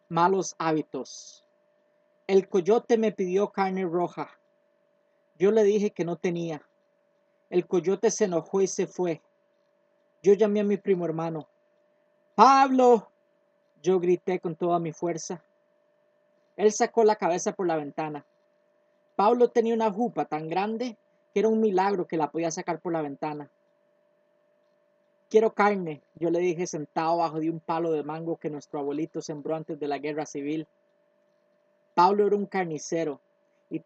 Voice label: Spanish poema